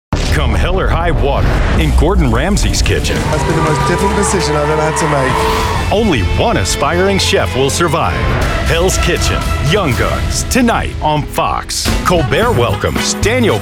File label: Promo